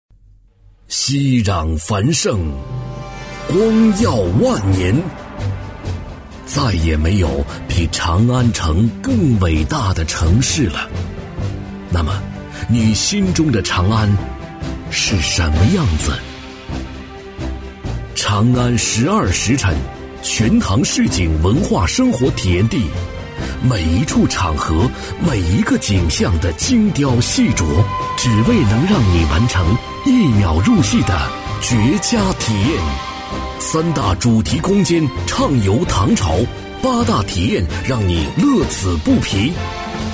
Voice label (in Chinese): 男 活动广告 昂扬大气; 磁性稳重 大气激昂; 长安十二时辰